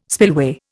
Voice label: "spillway", Indian